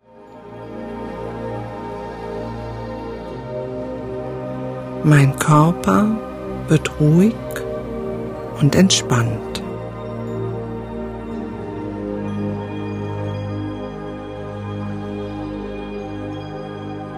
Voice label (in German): entspannung weihnachten traumhafte winter phantasiereisen, weibliche Stimme; Winterentspannung an der Ostsee